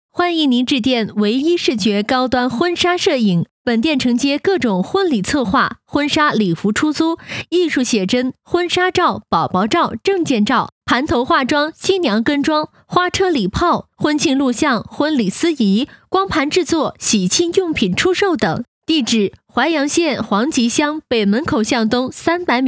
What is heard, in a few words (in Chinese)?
高端婚纱彩铃; 高端婚纱彩铃制作